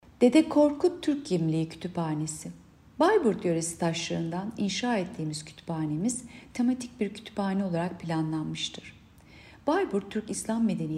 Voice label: turkce